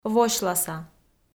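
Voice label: "восьласа", Komi, female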